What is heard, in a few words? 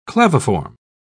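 "claviform", English, uk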